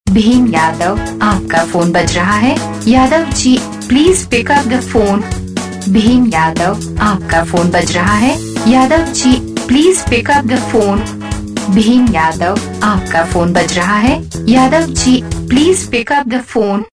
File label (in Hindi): apka phone baj raha hai saying, Ringtones